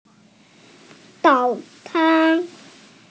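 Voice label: "zao3can1", Mandarin Chinese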